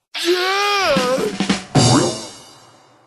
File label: idiot savant male